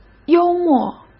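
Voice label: "幽默", Mandarin Chinese; hsk; chinese-flashcards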